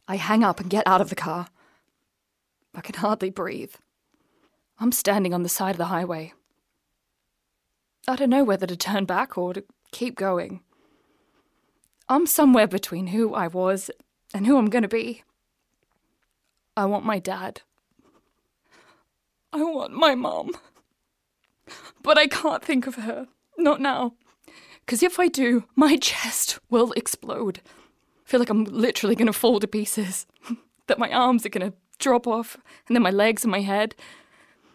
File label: Contemporary Australian Monologue